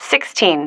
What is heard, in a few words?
"sixteen", female